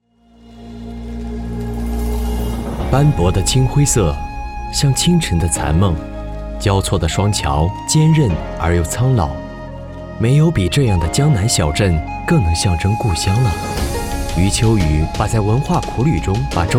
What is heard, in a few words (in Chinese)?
男 专题 抒情; 实惠大气; 斑驳的青灰色